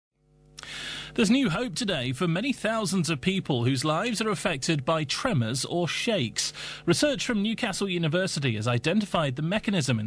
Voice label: Radio News; BBC Radio Newcastle